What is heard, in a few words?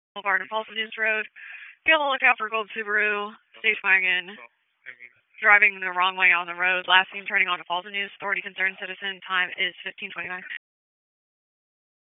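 Police Northeast District Dispatch, p25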